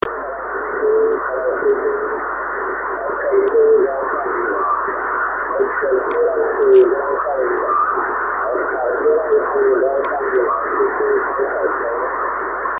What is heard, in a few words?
CQ SSB TEST